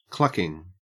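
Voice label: "clucking", Australian English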